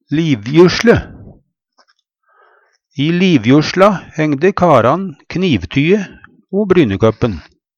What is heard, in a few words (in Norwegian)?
livjusle, Numedalsmål; Substantiv hokjønn